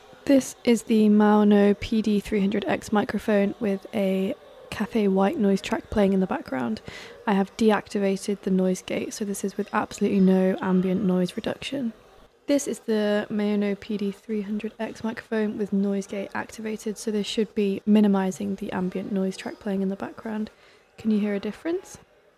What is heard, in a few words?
noise gate; maono-pd300x-microphone-review